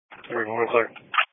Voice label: Police Patrol, Dispatch